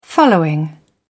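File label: "following", British English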